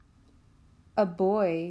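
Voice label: "A boy", English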